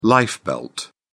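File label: "lifebelt", British English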